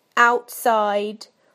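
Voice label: "outside", British English; PictureDictionary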